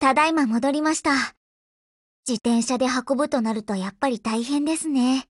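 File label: OPERATION OVER Japanese, Voice; GF Alarm; BrowningHP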